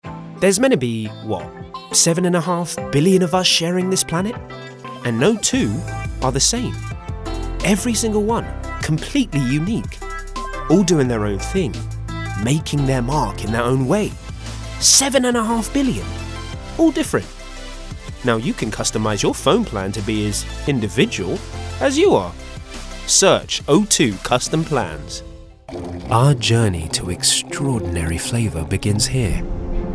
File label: Commercial